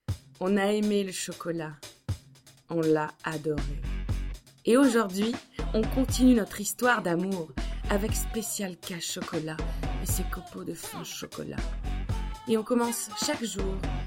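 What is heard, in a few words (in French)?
Publicité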